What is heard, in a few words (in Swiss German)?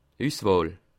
"usswahl", alsace